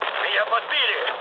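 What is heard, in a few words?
Russian aircraft destroyed mood low, speech; War Thunder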